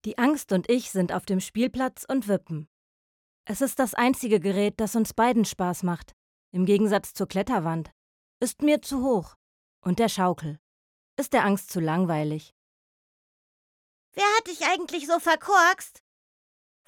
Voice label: Hoerbuch; LOFT VOICES

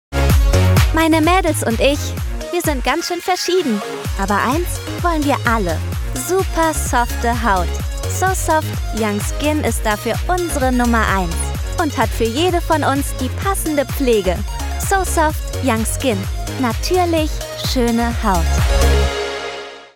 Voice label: Werbung Creme; LOFT VOICES

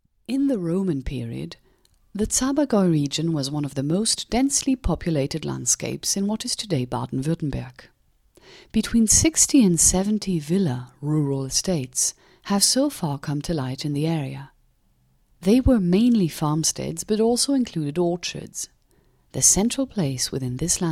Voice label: audioguide; guegglingen

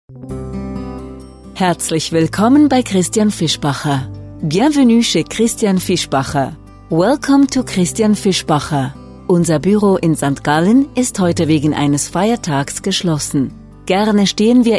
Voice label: dt fr eng

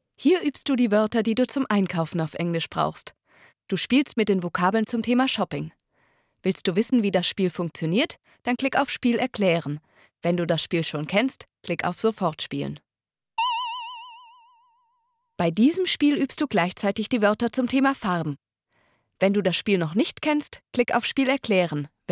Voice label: E-Learning Sprache

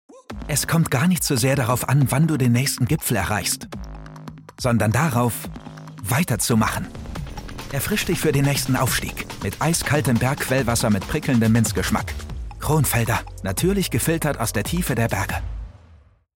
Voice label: Werbung Mineralwasser

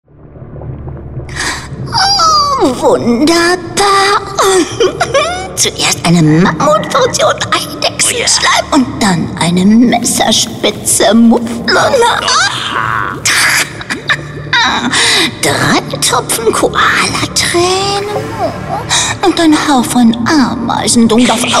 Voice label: Zauber-Hexe Trickstimme

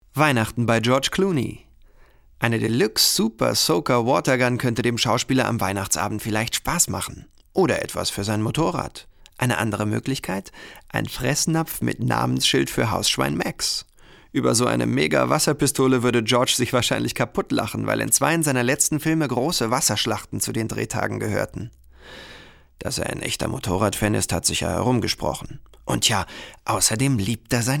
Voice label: kommentar

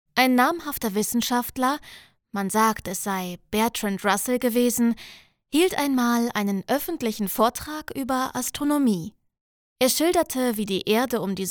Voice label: Hoerbuch, Audiobook (Hörbuch), German; Universum

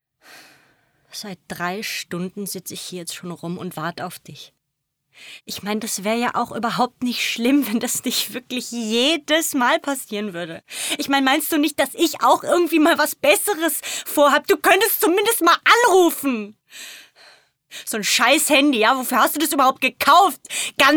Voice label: Synchron Wütend; LOFT VOICES

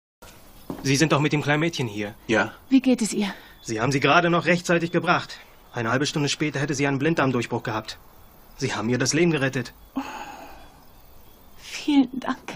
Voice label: kino synchron; am ende aller strassen

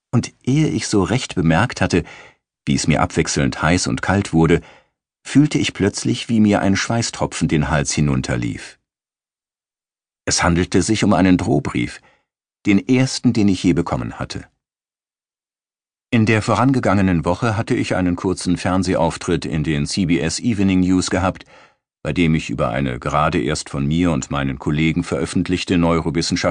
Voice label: Die Stimmen In Meinem Kopf; Chatter

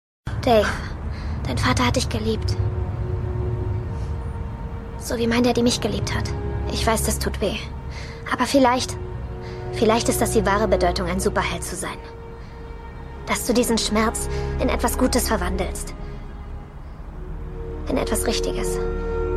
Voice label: Sprachprobe Synchron, Lip-Sync (Synchron), English; English NEUTRAL; Synchron - Kickass

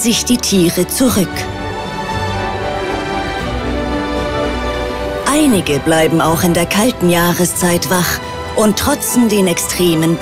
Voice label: Kommentar Trailer Winter in den Schweizer Alpen; ARTE; LOFT VOICES

